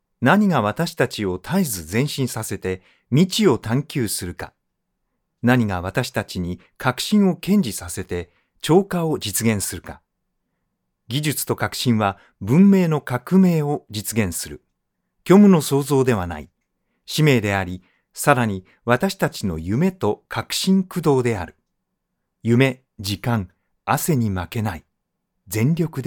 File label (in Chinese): 日语男 大气宣传片 片头